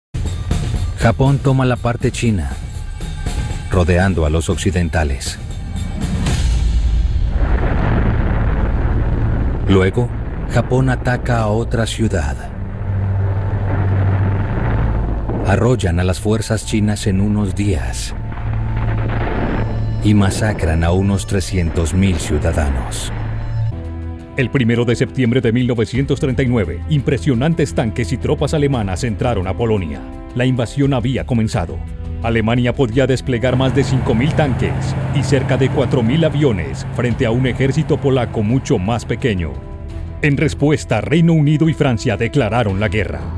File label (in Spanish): documental